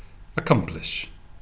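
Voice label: "accomplish", British male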